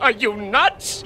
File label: are you nuts cartoon; questions